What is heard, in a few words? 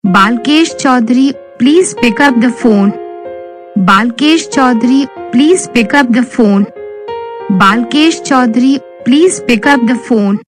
pick up phone saying, Ringtones